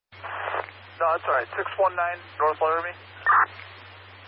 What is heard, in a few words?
amb police chatter; netent